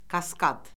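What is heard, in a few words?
"kaskad", Azerbaijani; Orfoqrafiya Lüğəti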